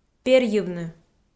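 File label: "перйывны", Komi, female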